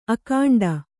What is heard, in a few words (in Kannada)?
"ಅಖಂಡ", Kannada